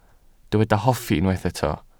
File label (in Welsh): "hoffi", Welsh